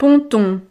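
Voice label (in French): "ponton", French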